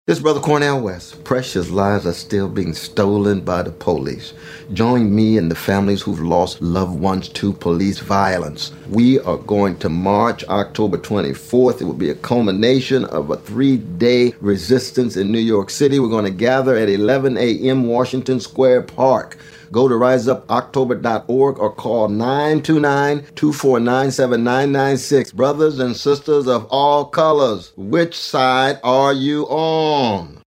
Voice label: promo no music; Cornel West; RiseUpOctober; PSA